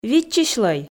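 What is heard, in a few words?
"виччысьлӧй", Komi, female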